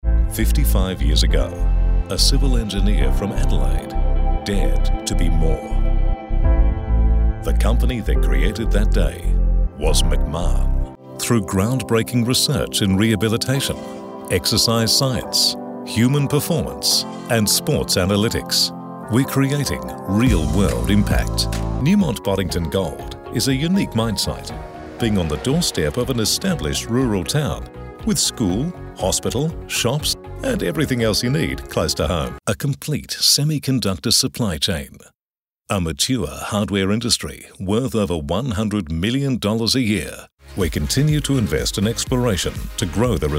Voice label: english australian corporate videos